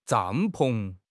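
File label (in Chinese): "帐篷", Chinese; HSK6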